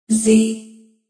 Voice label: "Zee" Computer voice, alphabet; Zee, speaking, (human voice); Computers; Electronics

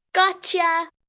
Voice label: Gotcha, 1 child, 7 yrs; Talking

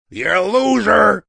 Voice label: You're A Loser, Attitude, Male; Attitude Sound Effects

